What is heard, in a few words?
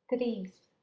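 "tris" Latvian female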